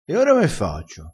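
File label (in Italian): "attaccaci un toppino", dialettale crespinese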